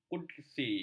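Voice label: "kudsi", Malay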